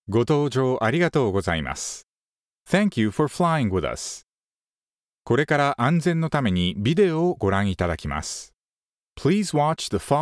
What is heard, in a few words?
airplane announce